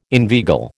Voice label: "inveigle", American

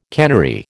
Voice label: "cannery", American

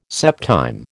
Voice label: "septime", American

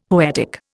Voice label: "poetic", Indian; Dictionary

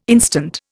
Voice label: "instant", Indian

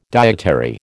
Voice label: "dietary", American

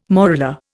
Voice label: "morula", Indian

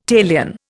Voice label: "talion", Indian

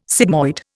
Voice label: "sigmoid", Indian

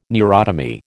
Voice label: "neurotomy", American

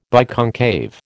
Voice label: "biconcave", American

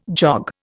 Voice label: "jog", Indian

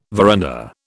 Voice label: "veranda", British

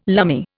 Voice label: "lumme", Indian

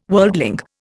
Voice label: "worldling", Indian

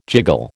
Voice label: "jiggle", American

